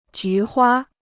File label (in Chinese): "菊花", Chinese